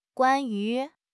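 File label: "guan1 yu2", Mandarin Chinese; HSK3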